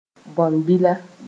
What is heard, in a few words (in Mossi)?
"bõn-bila", moore